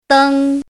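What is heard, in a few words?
"dēng", Chinese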